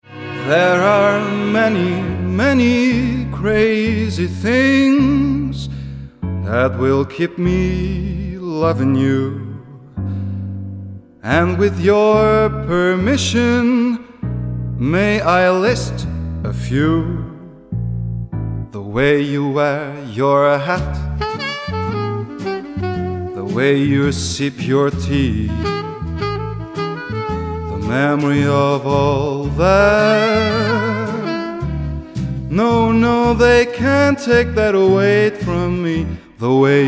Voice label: male italian comercial; ideasonora